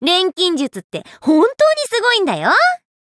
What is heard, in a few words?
alchemist touch, ja; Cookie Run